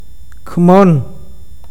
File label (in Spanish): aldea, awakateko